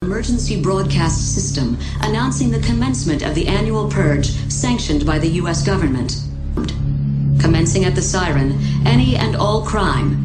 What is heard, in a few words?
purge announcement ringtone